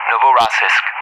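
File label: "Novorossiysk", English; Callsign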